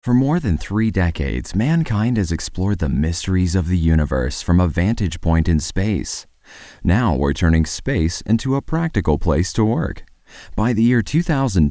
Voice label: RM5 narration